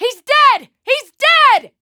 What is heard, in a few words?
american Positive; azurlane-hoi4; azurlane-sr-reforged